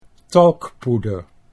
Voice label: "talkpoeder", Dutch

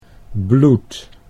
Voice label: "bloed", Dutch

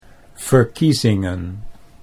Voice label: "verkiezingen", Dutch

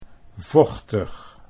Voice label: "vochtig", Dutch

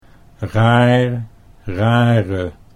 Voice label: "raar rare", Dutch

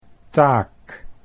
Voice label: "taak", Dutch

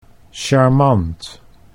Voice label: "charmant", Dutch

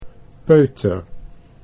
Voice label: "peuter", Dutch

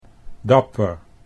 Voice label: "dapper", Dutch

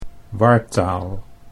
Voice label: "wartaal", Dutch

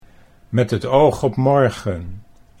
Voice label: "met toog op morgen", Dutch

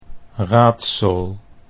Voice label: "raadsel", Dutch

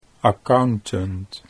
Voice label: "accountant", Dutch

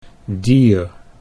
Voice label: "dier", Dutch

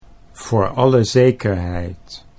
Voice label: voor alle zekerheid, Dutch

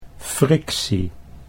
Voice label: "frictie", Dutch

